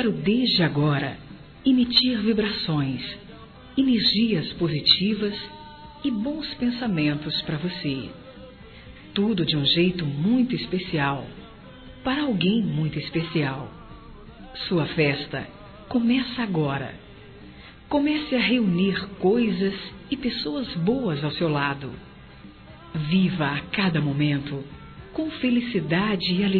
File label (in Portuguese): Aniversário Antecipado Feminino; Telemensagens 2017; Aniversários; Aniversário atrasado e antecipado